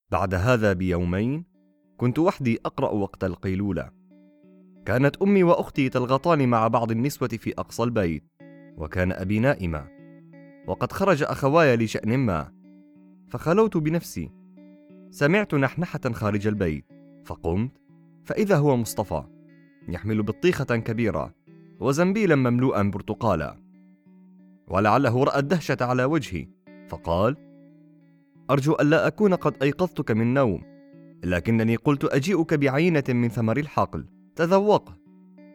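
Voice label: Arabic male Audiobook; voice over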